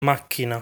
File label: "Macchina", Italian; lesson 6 articles plural